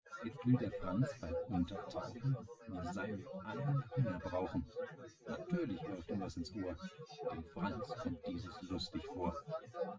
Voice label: male, synth conv, 130ms, 1m; SiSEC08; SiSEC underdetermined